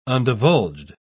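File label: "undivulged", British English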